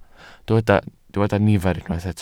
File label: "nifer", Welsh